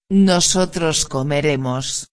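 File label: "comeremos", Spanish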